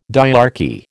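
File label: "dyarchy", American English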